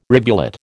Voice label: "rivulet", American